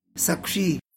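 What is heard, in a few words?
"good afternoon", Tlacolula Zapotec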